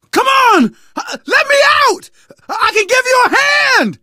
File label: call for rescue, survivor voice; source engine; left 4 dead 2; manager